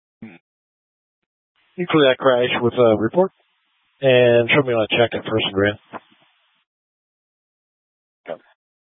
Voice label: Police 1 Dispatch